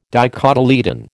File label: "dicotyledon", American